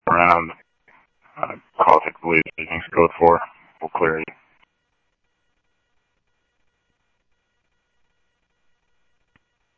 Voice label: Police, scanner audio trunk; H-Scottsdale